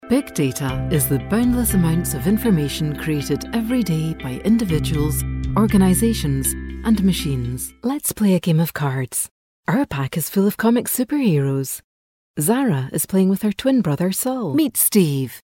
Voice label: english scottish animation; scottish voice actors